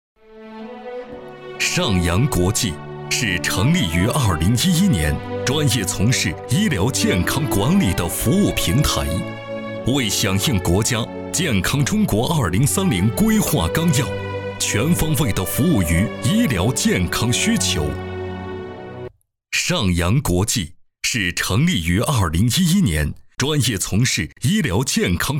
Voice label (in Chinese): 男 专题 医疗企业